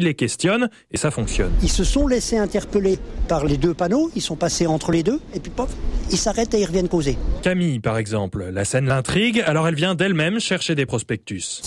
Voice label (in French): pub pour enfants